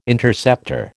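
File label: "Interceptor", American English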